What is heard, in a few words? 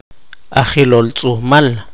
poqomchi; dermat logo